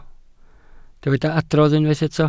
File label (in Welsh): "adrodd", Welsh